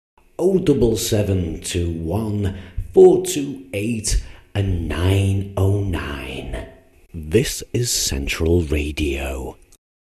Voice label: voice telephone no - this is central radio